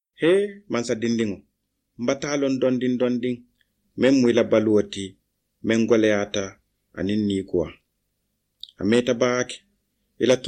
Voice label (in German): Mandingo Marsassoum